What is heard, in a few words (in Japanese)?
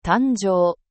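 "tanjou", Japanese; N4 level words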